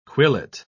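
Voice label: "quillet", British English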